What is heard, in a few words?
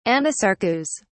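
"anasarcous", British English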